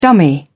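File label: "dummy", British English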